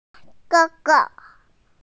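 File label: "da3", Mandarin Chinese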